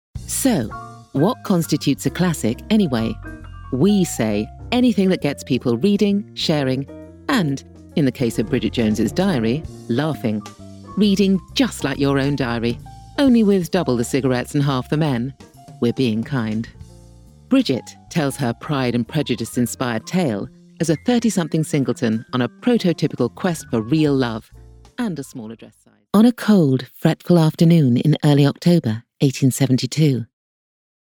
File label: audiobook reel british voiceover